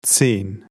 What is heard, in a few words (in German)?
zahlen deutsch maennlich 10